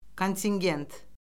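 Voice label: "kontingent", Azerbaijani; Orfoqrafiya Lüğəti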